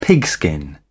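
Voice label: "pigskin", British English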